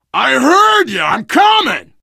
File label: to the rescue, survivor voice biker